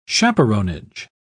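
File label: "chaperonage", British English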